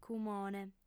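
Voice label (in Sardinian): "cumone", female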